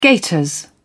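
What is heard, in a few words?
"gaiters", British English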